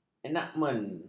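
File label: "enakmen", Malay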